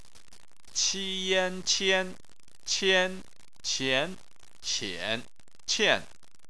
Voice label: "qian", chinese